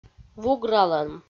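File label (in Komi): "вугралӧм", Komi, female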